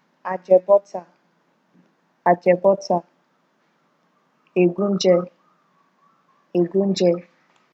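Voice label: "ajebota", Nigerian Pidgin, female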